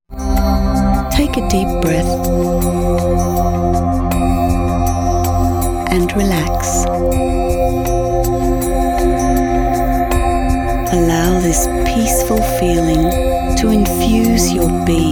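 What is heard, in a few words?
Medical Australian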